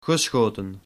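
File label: "geschoten", Dutch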